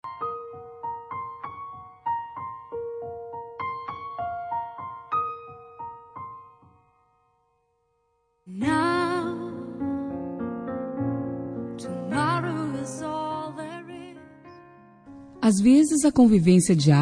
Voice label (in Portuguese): Aniversário de Neto – Voz Feminina; Telemensagens para todas as ocasiões